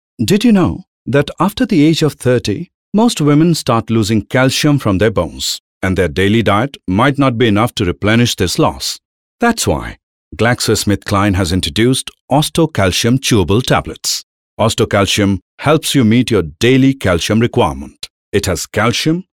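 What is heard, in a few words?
English VO, male voice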